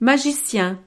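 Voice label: "magicien", French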